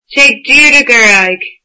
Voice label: Go straight, irish

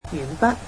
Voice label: "pencil", cantonese

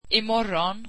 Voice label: tomorrow, swedish

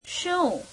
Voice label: "what", gujarati; શું; śheum

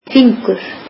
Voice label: icelandic fingers

